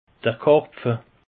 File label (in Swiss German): "karpfe", alsace; peche